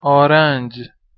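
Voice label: "arandZ", Persian, male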